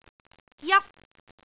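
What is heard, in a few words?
"jap1", Cantonese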